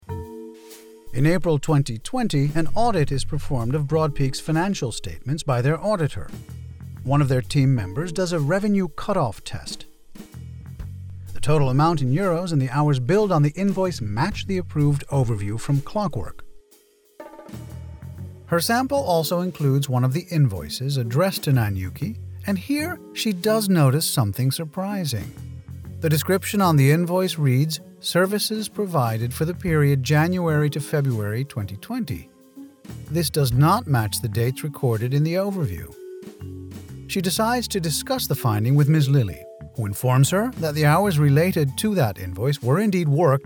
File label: e-learning; PwC Summer School